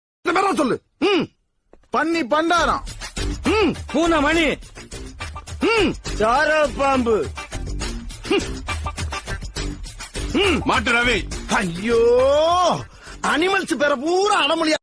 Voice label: comedy notification

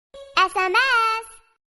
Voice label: sms baby voice notification

